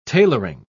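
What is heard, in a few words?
"tailoring", British English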